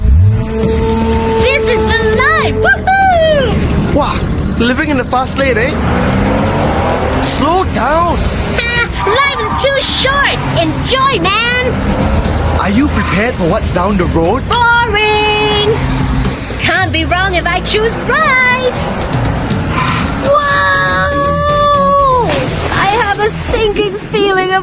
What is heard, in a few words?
Asian English Instagram, English Asian Male